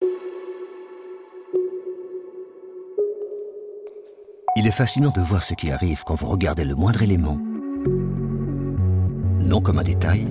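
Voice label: French, commercial; GoLocalise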